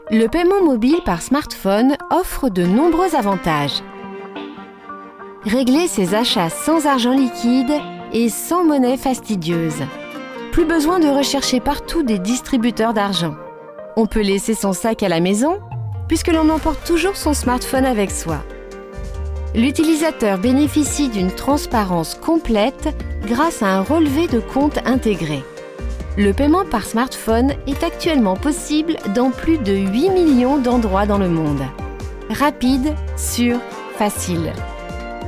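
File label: French, Dynamisch; Fremdtake; Mastercard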